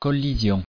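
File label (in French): "collision" French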